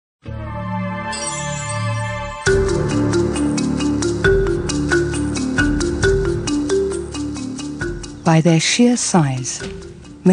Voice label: narrative documentary reel

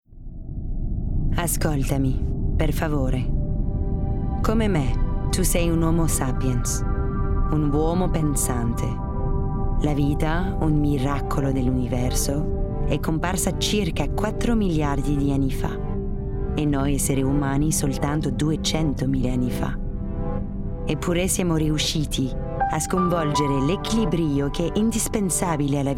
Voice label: Documentary Italian

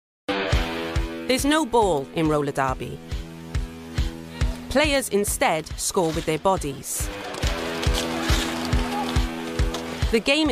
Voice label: Documentary; HELL ON WHEELS

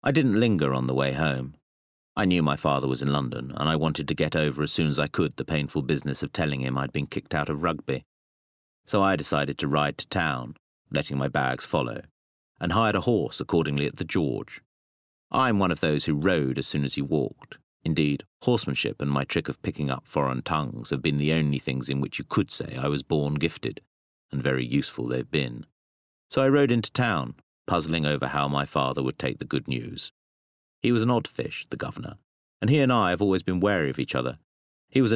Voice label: Bookread

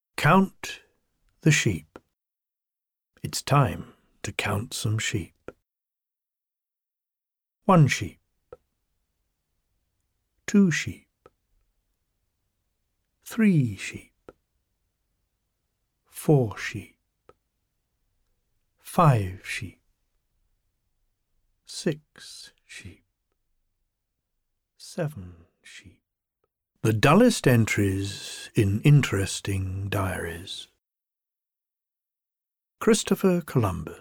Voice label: Bookread

